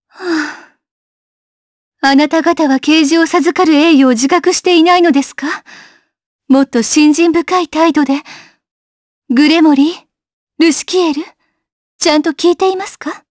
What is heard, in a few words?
Vox, jp; kingsraid